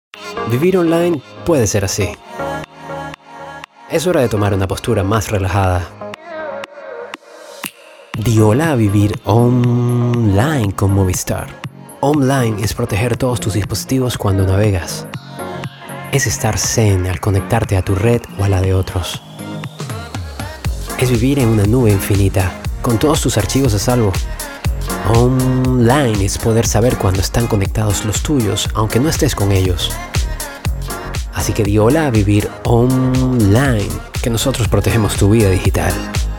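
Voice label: comercial, Venezuelan Spanish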